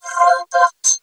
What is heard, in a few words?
ROBOT, VOCODA SPEECH; Zero-G Ambient 1